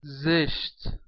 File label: "zeSt", Persian; زشت zesht; “ugly”